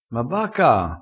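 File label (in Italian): "caa", dialettale crespinese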